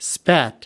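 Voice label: "spat", American English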